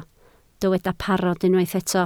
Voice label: "parod", Welsh